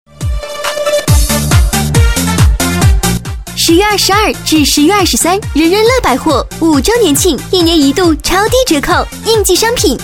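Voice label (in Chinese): 女7-店庆（激情青春）; 人人乐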